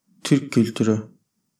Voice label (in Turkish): "türk kültürü" Turkish